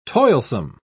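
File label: "toilsome", British English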